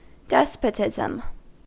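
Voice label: "despotism", American English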